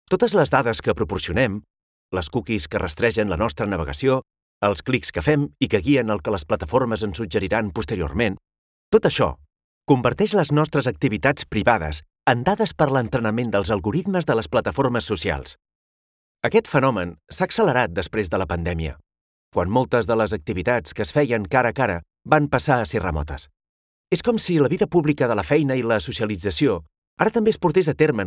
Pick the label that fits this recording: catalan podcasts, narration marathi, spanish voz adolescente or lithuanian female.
catalan podcasts